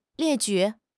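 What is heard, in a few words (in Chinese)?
"列举", Chinese; HSK6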